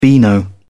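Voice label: "beano", English, British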